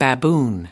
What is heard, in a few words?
"baboon", us